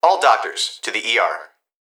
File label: Emergency Human Young Male Voice Hospital Calls All Doctors To The ER; emergency effects